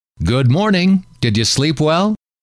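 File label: good Morning Did You Sleep Well, male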